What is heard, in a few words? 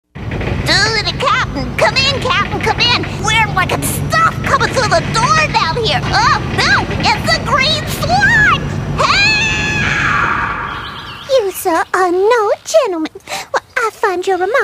animation, female voices